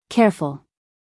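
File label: "careful", American English, female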